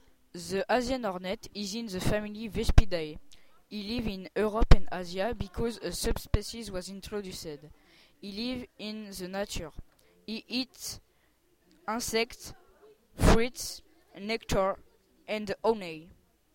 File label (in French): frelon asiatique uk